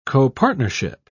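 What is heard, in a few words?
"copartnership", British English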